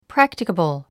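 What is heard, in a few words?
"practicable", English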